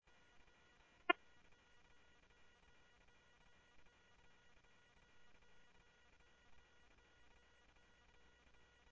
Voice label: Sheriff, scanner audio